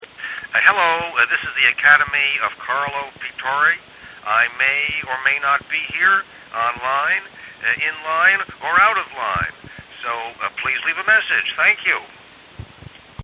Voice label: Phone Msg